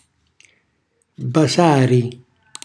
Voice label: "basari", Calabrese; baciare